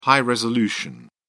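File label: "high-resolution", English, British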